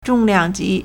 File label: "zhong4 liang4 ji2", Chinese